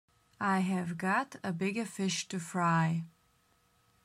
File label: Have got bigger fish to fry pronunciation slow; Best English Nitra